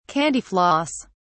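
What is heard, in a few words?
"candy floss", British English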